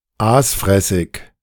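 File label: German "aasfressig"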